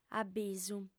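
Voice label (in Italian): "abisu", sarda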